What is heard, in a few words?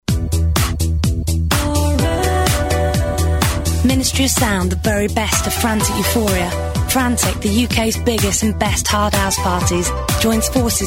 Commercial Frantic euphoria